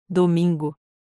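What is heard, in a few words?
"domingo", Portuguese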